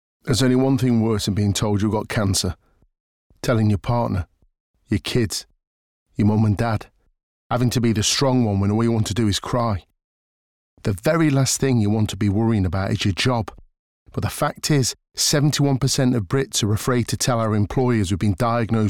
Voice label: radio; MacMillan Working With Cancer; mvo scripted